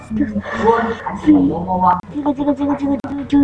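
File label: "jig", spoken word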